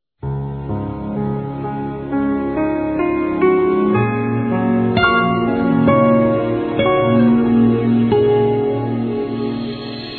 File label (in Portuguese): Cumprimentos pelo Casamento Masculino, Telemensagens; Otimismo